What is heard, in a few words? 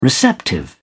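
"receptive", British English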